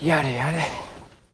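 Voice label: "yare yare", Japanese; feen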